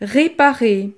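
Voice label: "reparer", French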